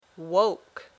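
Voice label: "woke", English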